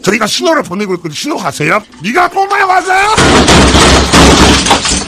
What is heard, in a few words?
heavy negative vocalization